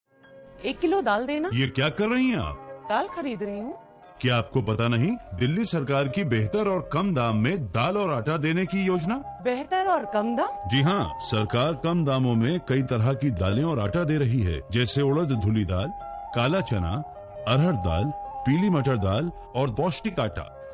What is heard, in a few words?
hindi kg daal